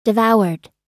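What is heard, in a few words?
"devoured", American English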